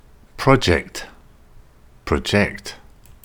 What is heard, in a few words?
"project", English; intermediate english pronunciation test